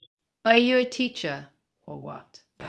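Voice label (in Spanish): Voice Acting, Spanish, female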